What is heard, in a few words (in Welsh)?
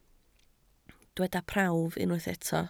"prawf" Welsh